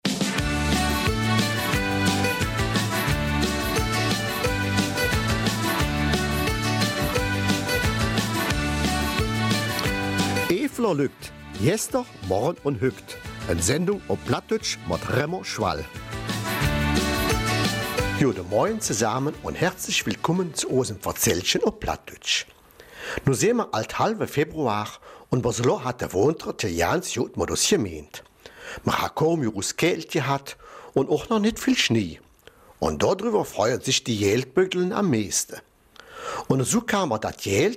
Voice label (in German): Eifeler Mundart; 50 Jahre Café Gennen-Gillessen in Maldingen